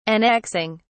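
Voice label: "annexing", British English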